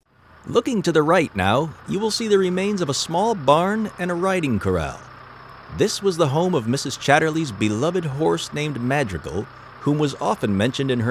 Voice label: tour guide; barn